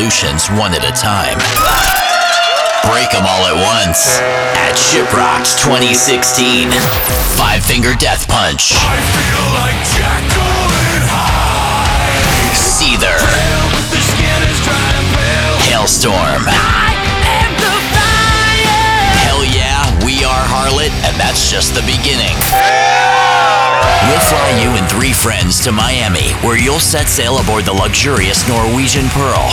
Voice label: Male Voice Over Talents Radio Imaging Alt Rock